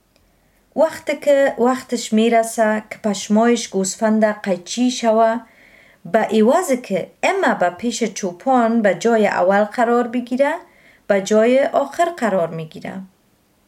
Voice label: dari

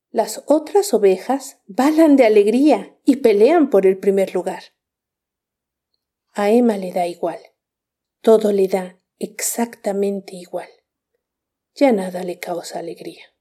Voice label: spanisch

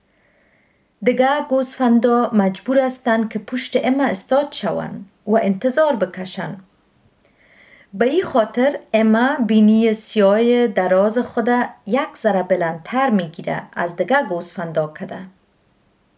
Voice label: dari

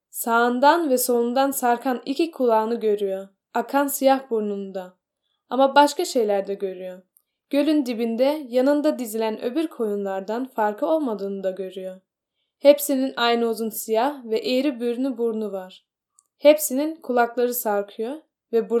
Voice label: türkisch

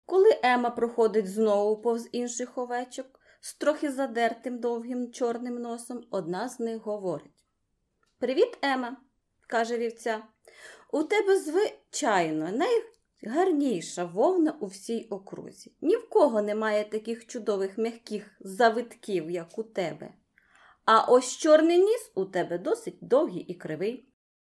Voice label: ukrainisch

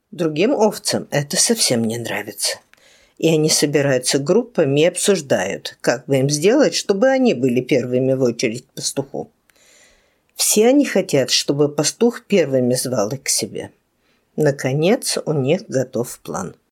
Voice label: russisch

